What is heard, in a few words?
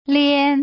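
"lian", Mandarin Chinese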